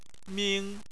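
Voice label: "ming", Chinese, first tone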